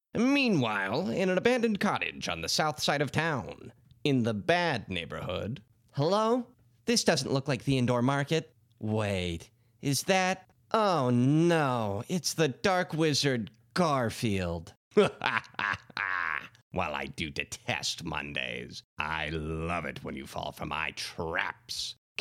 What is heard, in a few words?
Character; VO; Sizzle Reel; voice over reel